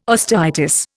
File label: "osteitis", Indian